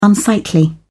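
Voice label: "unsightly", British English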